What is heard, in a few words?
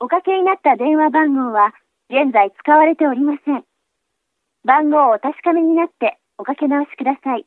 japanese phone cannot be reached